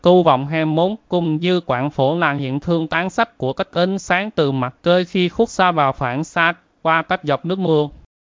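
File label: speaker, American English; piper-voices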